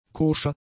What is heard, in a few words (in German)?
"koscher", German; adjectives